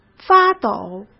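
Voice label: "发抖", Mandarin Chinese; hsk; chinese-flashcards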